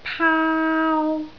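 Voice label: "paau1" Cantonese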